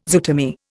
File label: "zootomy", Indian